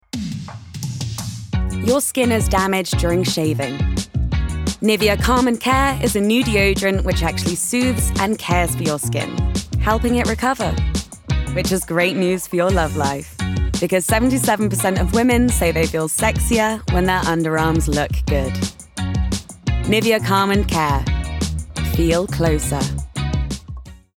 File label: Commercial, Bright, Upbeat, Conversational, RP ('Received Pronunciation'); Nivea